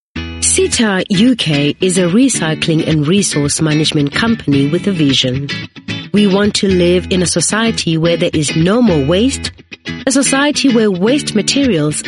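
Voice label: African English, female, commercials, accent of africa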